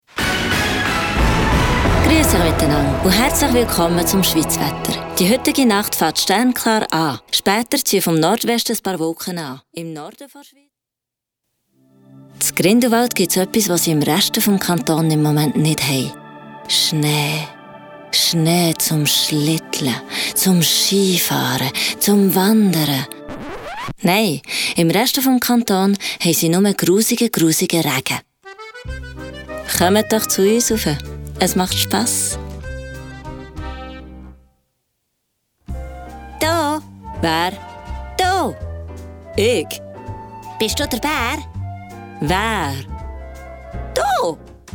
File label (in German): CH dütsch